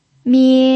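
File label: "mie", pinyin, first tone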